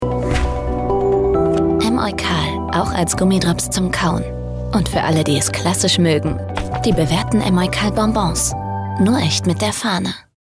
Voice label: Sanft; Fremdtake

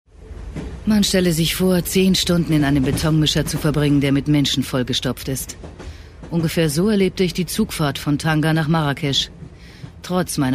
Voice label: Schauspiel Neutral

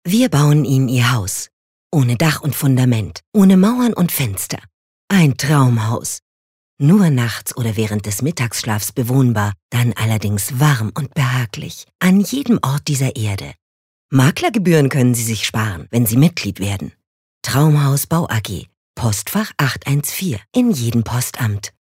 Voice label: Werbung Freundlich

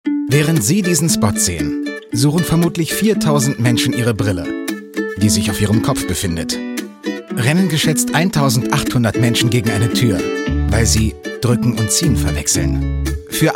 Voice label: Freundlich

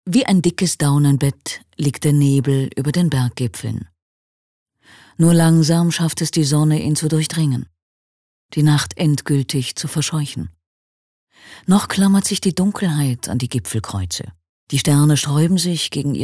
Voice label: Schauspiel, Variabel

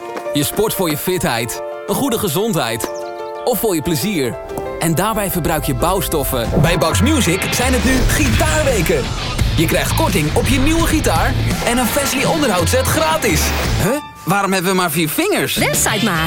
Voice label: NL Fremdtake Dynamisch

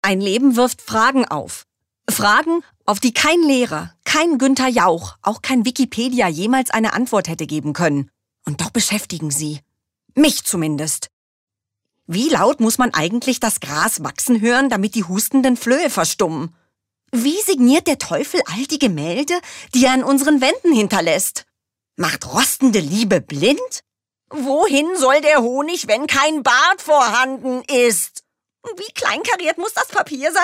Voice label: Schauspiel Energisch

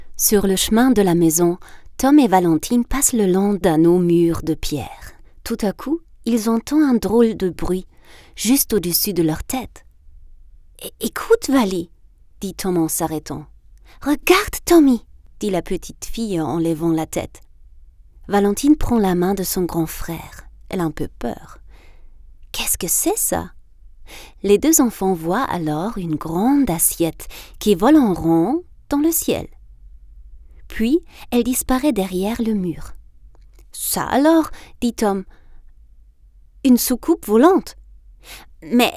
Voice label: FR Freundlich

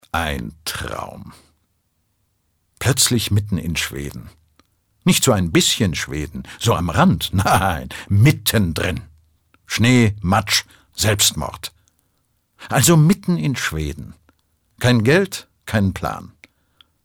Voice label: Schauspiel Neutral

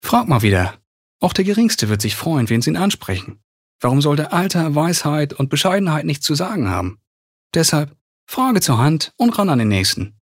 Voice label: Dialekt Hamburgerisch

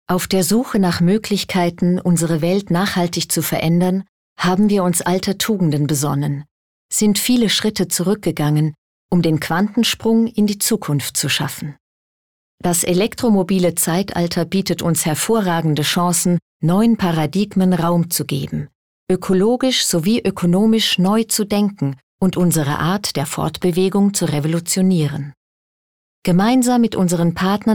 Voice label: Image Sanft; Moeglichkeiten

